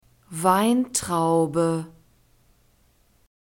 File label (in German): "weintraube", German; fruits